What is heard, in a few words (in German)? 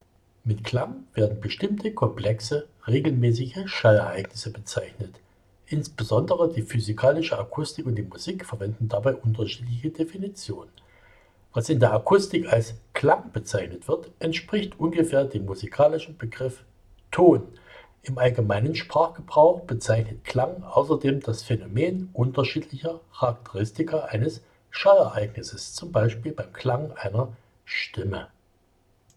Mikro Sennheiser